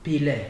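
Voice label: "pile" Nigerian Pidgin, male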